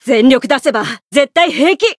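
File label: Voice variable chant, Japanese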